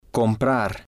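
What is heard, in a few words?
"comprar", Spanish, male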